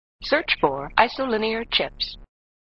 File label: Voice Computer; flipper; sttng